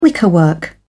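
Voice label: "wickerwork", British English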